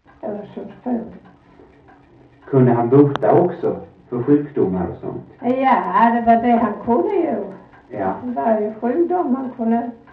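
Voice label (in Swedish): Sövde Skåne, dialekter; Folkminnen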